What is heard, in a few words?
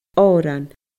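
"òran", Scottish Gaelic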